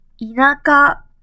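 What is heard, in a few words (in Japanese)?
"田舎", Japanese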